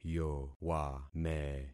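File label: "yowame", concatenated syllables; Affixing experiment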